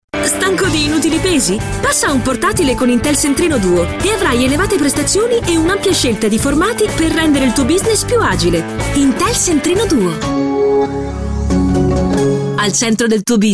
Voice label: italian female commercial; voice demos